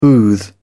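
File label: "booth", British English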